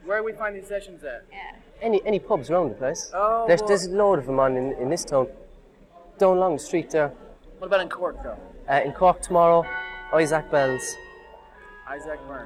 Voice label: Cork Ireland Male 20s 30s

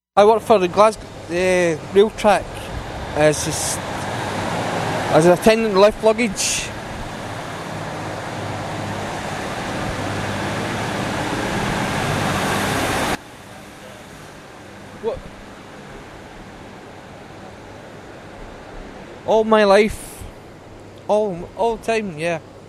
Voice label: Glasgow Working Class; Scotland

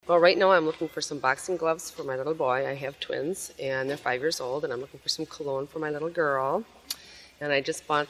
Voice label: Wisconsin white female 36; United States Midwest Dialects

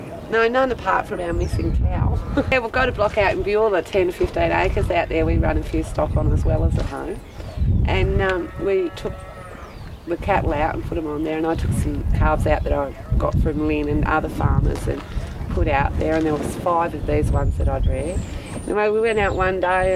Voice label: Tasmania Australia White Female Age 40; australia-oceania

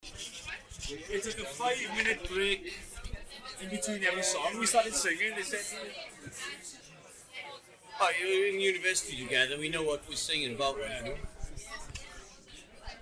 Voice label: Welsh immersion; Europe

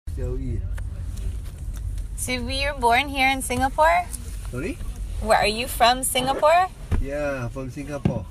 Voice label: Singapore Man, Singlish; asia

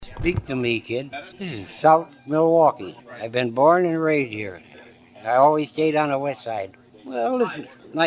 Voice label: Wisconsin white male 81; United States Midwest Dialects

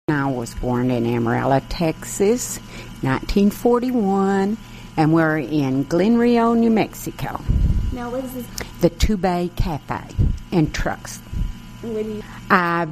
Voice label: Amarillo Texas White Female Age 52

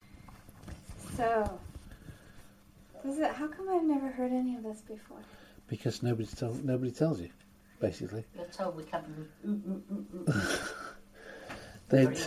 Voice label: UK Manchester Seniors Females 19 and 20

